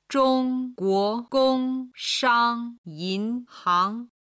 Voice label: "zhong guo gong shang yin hang", Chinese

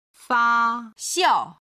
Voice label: "fa1xian4", Chinese

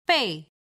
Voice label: "bei4", Chinese

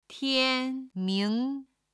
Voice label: "tian ming" Chinese

